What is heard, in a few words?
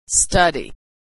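"study", American English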